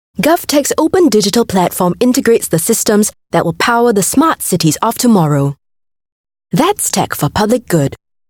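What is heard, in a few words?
Asian English; GovTech Tech for Public Good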